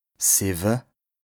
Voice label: "sìwwe", lexique indispensable bas rhin, Alsace; 7

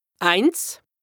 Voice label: "eins", lexique indispensable haut rhin, Alsace; 1

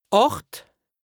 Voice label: "ààcht", lexique indispensable haut rhin, Alsace; 8

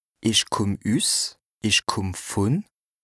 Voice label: "Ìch kùmm vùn...", lexique indispensable bas rhin, Alsace; Je viens de…

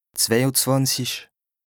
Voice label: "zwei-e-zwànzich", lexique indispensable bas rhin, Alsace

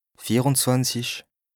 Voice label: "vier-e-zwànzich", lexique indispensable bas rhin, Alsace; 24

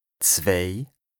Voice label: "zwei", lexique indispensable bas rhin, Alsace; 2

